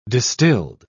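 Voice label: "distilled", British English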